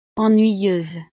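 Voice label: "ennuyeuse", French; estados animo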